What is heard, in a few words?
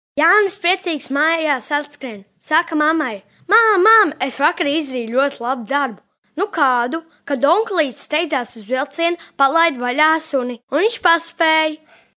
latvian boy character; voice demos